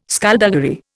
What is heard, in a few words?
"skulduggery", Indian